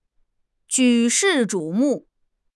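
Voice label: "举世瞩目", Mandarin Chinese; HSK6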